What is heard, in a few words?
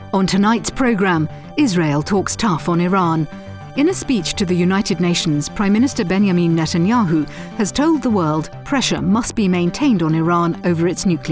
news VO